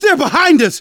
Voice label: watch out behind, survivor voice; Left 4 Dead 2; left4dead2 dlc3